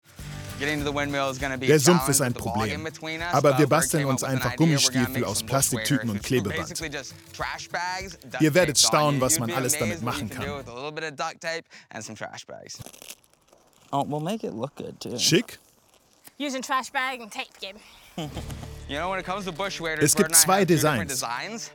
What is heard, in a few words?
Voice Over